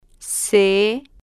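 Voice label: "c", spanish, female, slow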